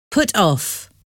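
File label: "put off", English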